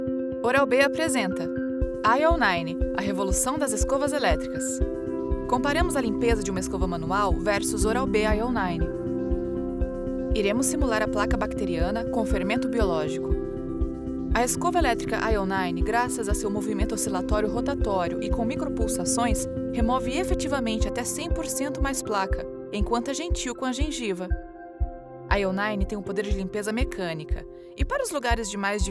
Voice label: corporate presentation informative professional interesting; oral-b